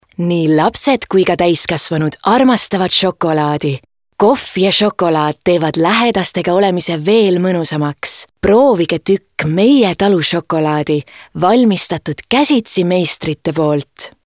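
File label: Estonian female Sweet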